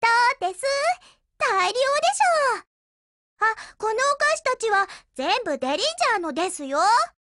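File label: OPERATION OVER Japanese, Voice; GF Alarm; Derringer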